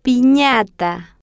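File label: "pinata", spanish, slow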